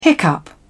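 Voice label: "hiccup", English, British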